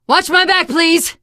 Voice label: cover me, teengirl; survivor voice